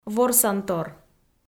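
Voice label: "ворсантор", Komi, female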